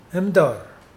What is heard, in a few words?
how, Mokilese